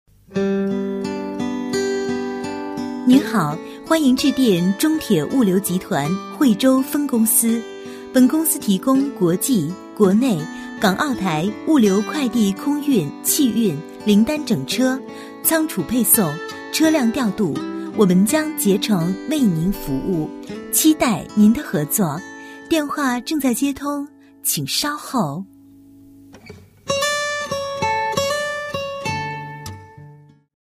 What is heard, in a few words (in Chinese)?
女 彩铃; 中铁物流集团; 国语女声配音